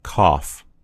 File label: "cough", en US; gcompris